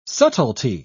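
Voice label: "subtilty", British English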